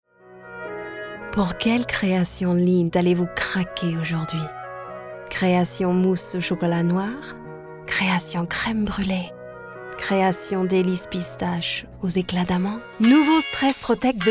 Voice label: french